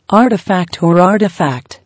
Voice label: "Artifact or Artefact", English

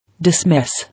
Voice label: "Dismiss" English

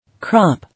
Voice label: "Crop" English

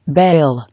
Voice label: "Bail", English

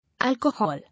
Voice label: "Alcohol", English

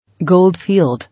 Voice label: "Gold Field", English

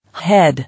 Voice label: "Head", English

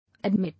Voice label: "Admit", English

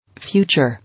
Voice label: "Future", English

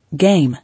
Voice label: "Game", English

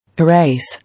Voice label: "Erase", English

